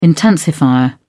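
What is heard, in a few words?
"intensifier", British English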